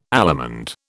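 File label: "allemande", British English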